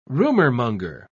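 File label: "rumourmonger", English, British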